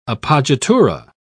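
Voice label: "appoggiatura", British English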